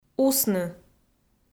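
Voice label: "усны", Komi, female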